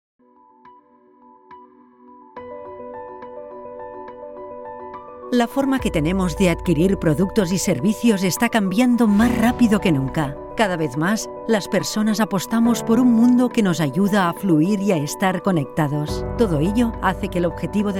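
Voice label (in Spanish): corporativo España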